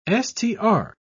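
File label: "str", British English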